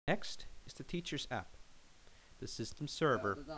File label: Narration